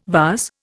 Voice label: "vase", Indian